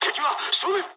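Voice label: Japanese enemy detected mood med; War Thunder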